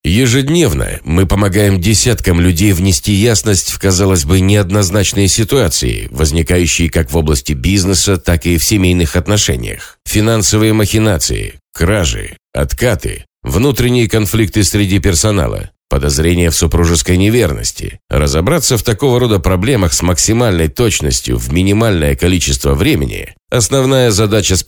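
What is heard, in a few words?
delovoy rasskaz Russian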